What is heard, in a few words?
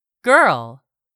"GIRL", english; 3000 words